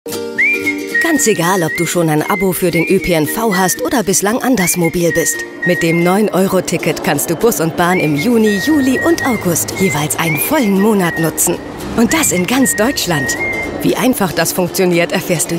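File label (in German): erklaerfilm, Sprecherin; 9-euro-ticket; Muttersprache Deutsch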